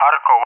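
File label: "Arco", English; Allied Flight; CallSign; DCS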